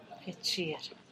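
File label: "hechir", Teotitlán del Valle Zapotec; tijeras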